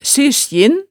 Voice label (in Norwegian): "sysjin", hemsedalsmaalet